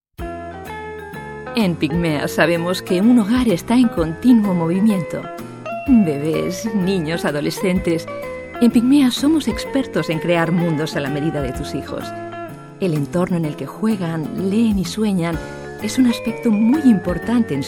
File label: es publi oasis